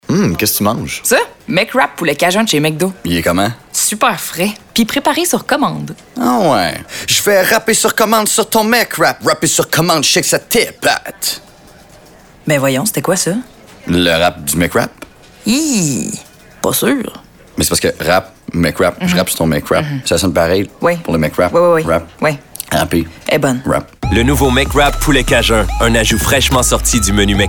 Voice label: Rap; McWraps; Spotify